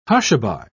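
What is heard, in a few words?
"hushaby", British English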